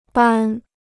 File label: "扳", Chinese